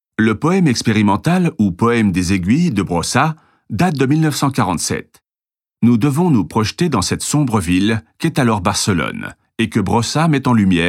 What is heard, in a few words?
audioguia french